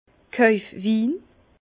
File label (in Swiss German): "kojfwin", Bas Rhin; vin